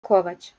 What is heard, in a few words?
"kovaC", Bosnian